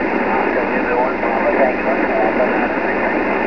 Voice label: 17 ssb